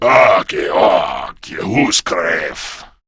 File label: Patrol, Male